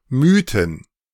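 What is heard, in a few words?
"mühten", German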